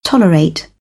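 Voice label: "tolerate", British English; AmericanBritish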